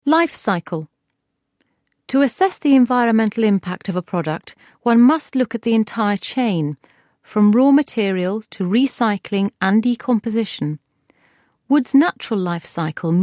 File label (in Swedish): brittisk kvinna